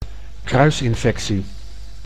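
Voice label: "kruisinfectie", Dutch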